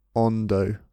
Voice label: "hondo" incorrect, Spanish pronunciation